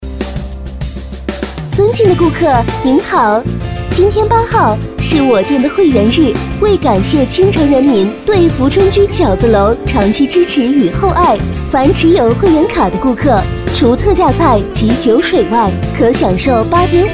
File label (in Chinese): 女 广告 甜美温馨, 国语女声配音; 富春居饺子楼